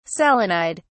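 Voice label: "selenide", British English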